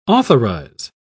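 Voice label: "authorise", British English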